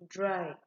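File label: "dry", Nigerian Pidgin, female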